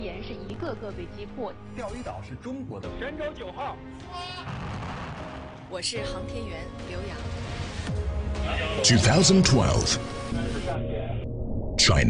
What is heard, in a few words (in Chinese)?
英男 宣传片 对外宣传片; 中共对外宣传片